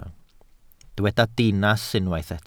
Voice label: "dinas", welsh